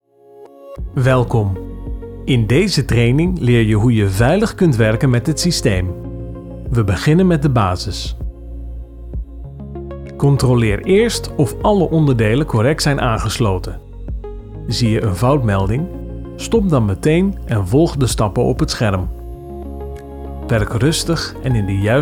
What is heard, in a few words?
explainer